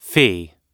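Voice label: "fee", English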